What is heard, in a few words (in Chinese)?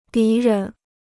"敌人", Chinese